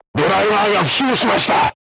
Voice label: voice message driver wounded, crew tanks japanese